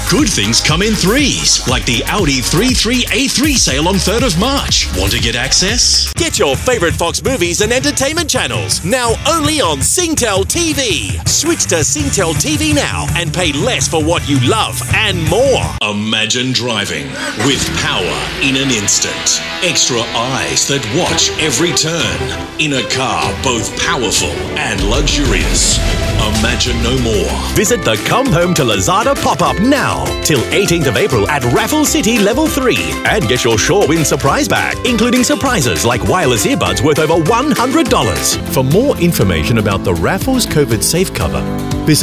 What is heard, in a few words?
AU English Commercial